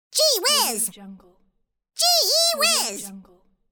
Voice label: cartoon voice gee whiz